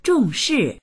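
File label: "zhong4shi4", Mandarin Chinese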